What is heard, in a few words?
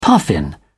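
"puffin", British English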